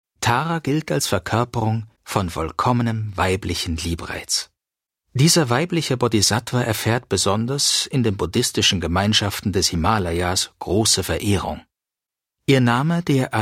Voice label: german e-learning